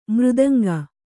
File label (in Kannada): "ಮೃದಂಗ", Kannada; mřdanga; noun